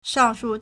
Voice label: "shang4shu4", Chinese